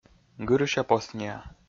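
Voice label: "гырыся-посниа", Komi-Zyrian, male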